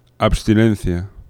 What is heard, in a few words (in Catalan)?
"abstinencia", aproximants; laboratori fonetica